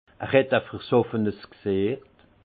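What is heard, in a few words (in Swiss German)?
"versoffe", alsace Haut-Rhin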